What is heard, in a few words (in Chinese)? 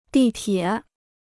"地铁", Chinese